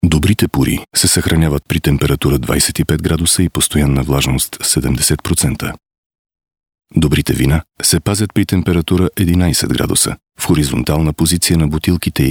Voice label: Bulgarian; foreign languages